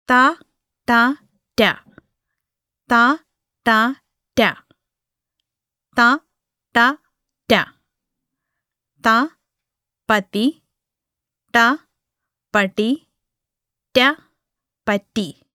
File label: Malayalam Recordings Basic Pronunciation